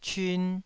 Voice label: "cyun2", Taiwanese syllable